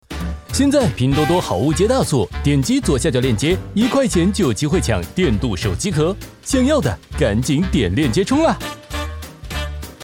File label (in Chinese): 男 广告 推销卖货